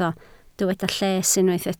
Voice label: "lles", Welsh

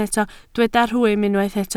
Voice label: "rhwym", Welsh

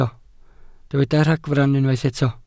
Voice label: "ragfarn", Welsh

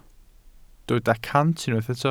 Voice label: "cant", Welsh

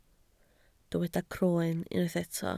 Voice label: "croen", Welsh

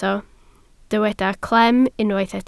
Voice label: "clem", Welsh

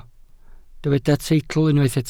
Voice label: "teitl", Welsh

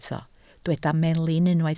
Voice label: "melin", Welsh

